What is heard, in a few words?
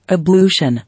"Ablution", English